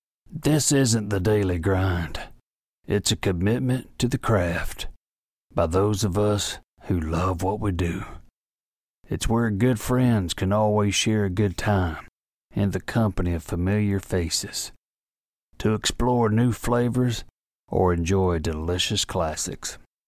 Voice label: Voice Talent Celebrity Voices; Sam Elliot